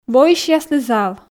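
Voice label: "воысьяслы зал", Komi, female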